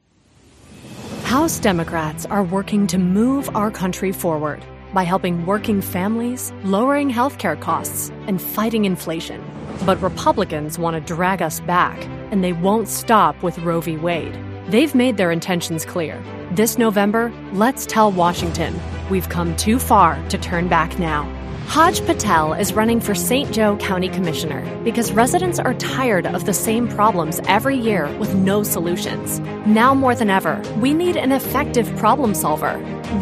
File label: english american political ads; actriz de voz premiada